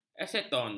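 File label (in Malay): "aseton", Malay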